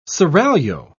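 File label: "seraglio", British English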